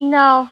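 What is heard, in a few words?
"no", female; Voice; expletives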